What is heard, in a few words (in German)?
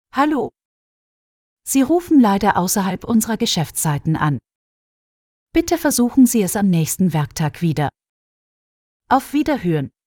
ausserhalb Hang Up FEMALE